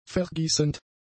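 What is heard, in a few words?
"vergießend", German; adjectives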